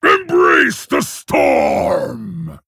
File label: Male Orc Hero Power; Blademaster; Uther